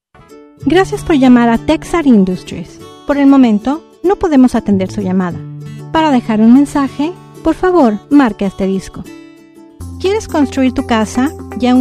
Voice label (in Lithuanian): (MOT) Ispanu balsas; moteris